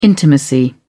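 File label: "intimacy", British English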